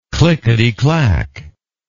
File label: "clickety clack", English